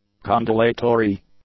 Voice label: "condolatory", English; adjectives